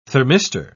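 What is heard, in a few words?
"thermistor", British English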